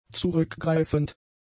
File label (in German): "zurückgreifend", German; adjectives